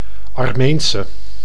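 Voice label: "Armeense", Dutch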